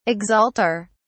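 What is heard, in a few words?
"exalter", British English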